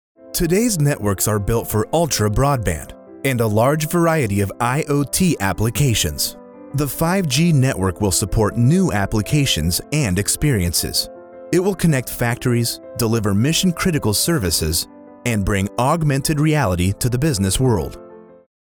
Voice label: Corporate

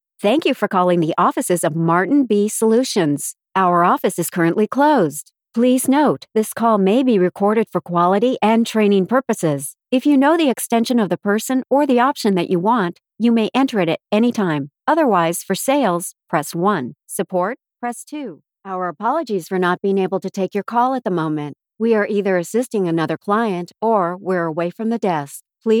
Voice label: Phone IVR

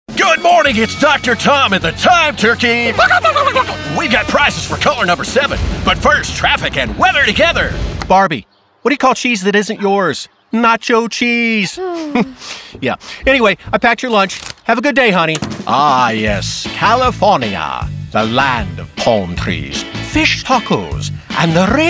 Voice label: Animation

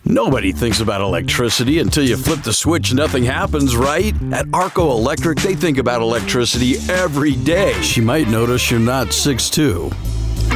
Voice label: Voice Over Demo

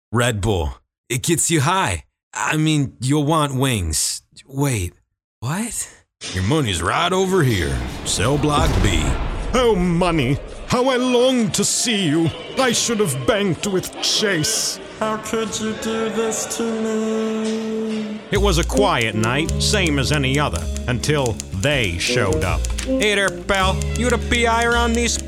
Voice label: Character

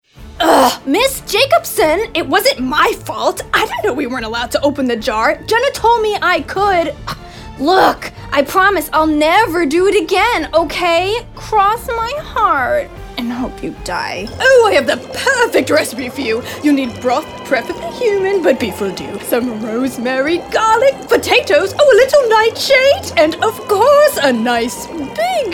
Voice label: character

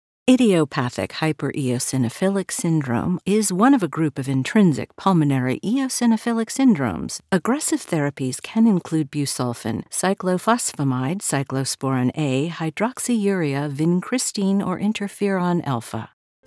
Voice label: Medical Narration

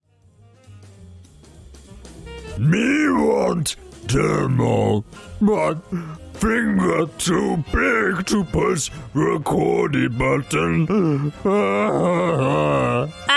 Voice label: Character; Character - Demo

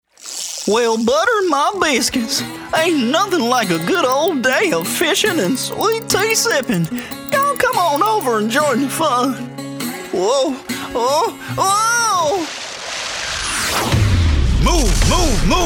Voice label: VO Character

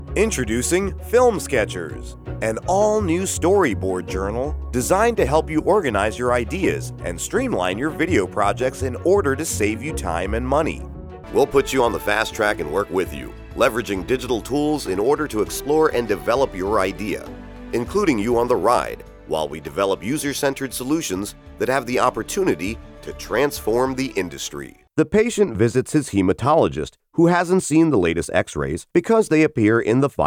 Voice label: eLearning

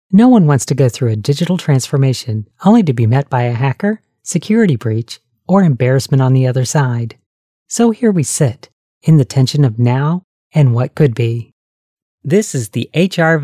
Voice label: Narration

